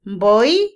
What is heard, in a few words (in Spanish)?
oscuro, Otomí, mujer; Percepción; INALI